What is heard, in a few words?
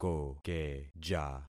"kokeja", concat syllables; Affixing experiment